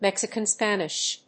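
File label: "mexican spanish", English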